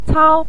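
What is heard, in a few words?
"cao" Mandarin Chinese, neutral tone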